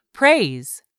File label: "praise", English; 3000 words